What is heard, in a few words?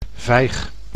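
"vijg", Dutch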